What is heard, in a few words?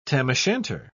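"tam-o'-shanter", British English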